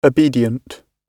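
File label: "obedient", British English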